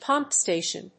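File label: "pump station", English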